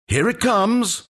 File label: announcer count battle; dlc stanleyparable